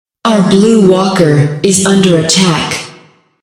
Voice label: female ally attack, female announcer; patron; blue walker